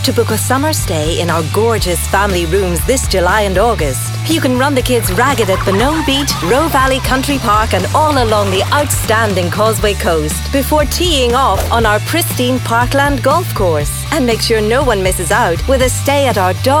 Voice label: female Northern Irish Northern Irish accent resort commercial; roe park